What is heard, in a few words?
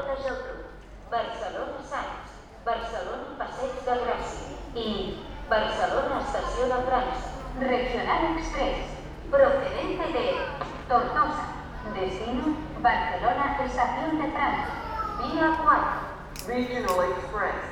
train station announcement